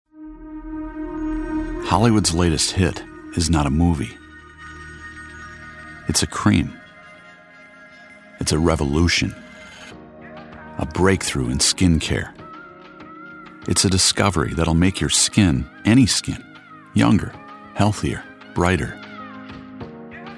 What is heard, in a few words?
Exotic Upscale Expensive